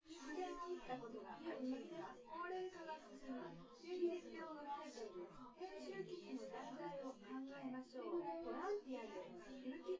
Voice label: female synthconv